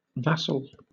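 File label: "vassal", British English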